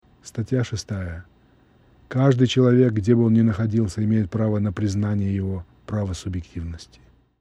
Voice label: Russian